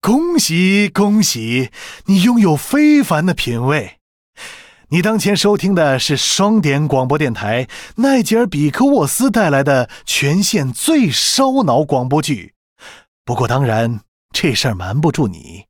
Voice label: Mandarin; 开场白